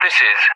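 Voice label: "this is", English speech; DCS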